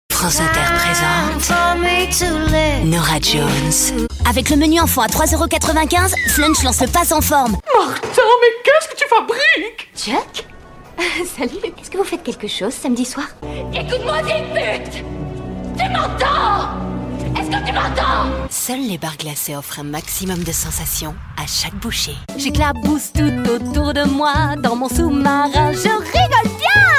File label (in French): les voix medley